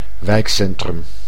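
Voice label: "wijkcentrum", Dutch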